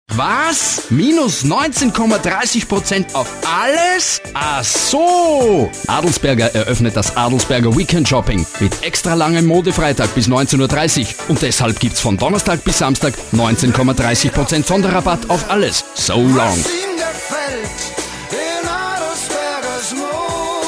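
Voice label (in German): Austrian Werbung Dynamisch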